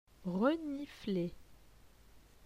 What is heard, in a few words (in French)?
"renifler", francais